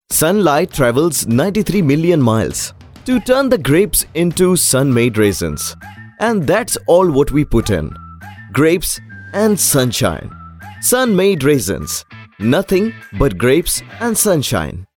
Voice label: English Indian Accent Male TVC; urdu